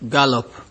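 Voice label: "gallop", Nigerian Pidgin, male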